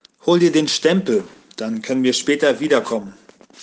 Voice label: "Stempel", german